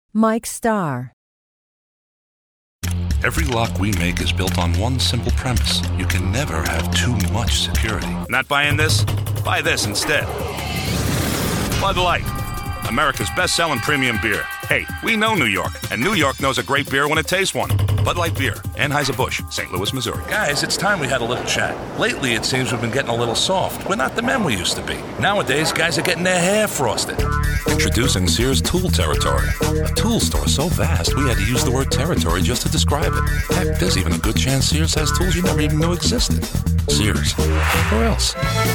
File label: commercial; Innovative LA